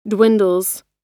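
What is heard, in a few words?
"dwindles", American English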